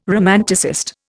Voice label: "romanticist", Indian

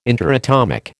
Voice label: "interatomic", American

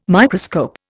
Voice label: "Microscope", Indian

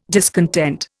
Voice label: "discontent", Indian

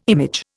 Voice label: "image", Indian

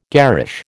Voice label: "garish", American

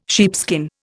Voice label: "sheepskin", Indian

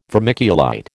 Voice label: "vermiculite", American

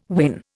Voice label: "win", Indian

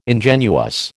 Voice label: "ingenuous", American

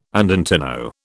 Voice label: "andantino", British

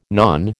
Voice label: "nan", American

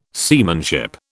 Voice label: "seamanship", British

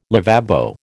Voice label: "lavabo", American

